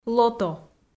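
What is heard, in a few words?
"лото", Komi, female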